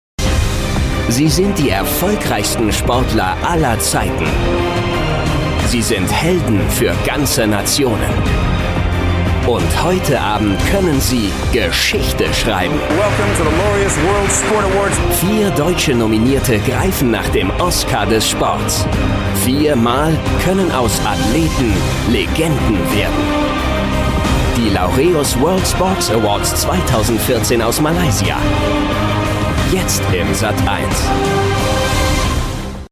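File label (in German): Trailer, Männer; LaureusAwards2014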